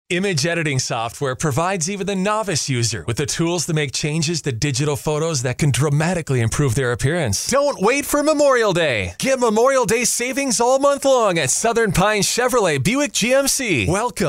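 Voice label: Dry voice-over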